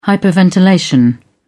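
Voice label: "hyperventilation", British English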